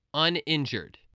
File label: "uninjured", English spelling voice